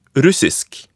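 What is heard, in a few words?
"russisk", Norwegian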